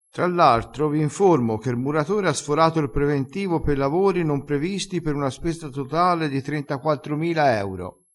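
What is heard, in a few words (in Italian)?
"sforato", vocabolario dialettale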